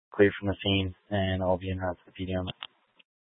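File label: Police Dispatch, Scanner audio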